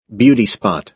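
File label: "beauty spot", American English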